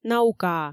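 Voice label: "наукаа", Komi, female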